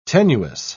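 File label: "tenuous", English word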